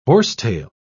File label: "horsetail", pronounce